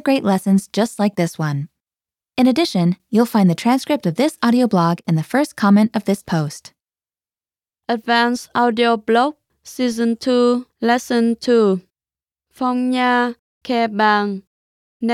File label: Vietnamese language female; asia